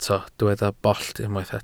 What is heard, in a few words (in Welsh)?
"bollt", Welsh